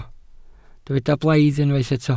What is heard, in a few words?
"blaidd", Welsh